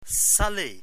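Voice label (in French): "saler" French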